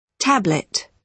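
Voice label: "tablet", British English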